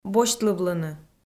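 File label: "босьтлывлыны", Komi-Zyrian, female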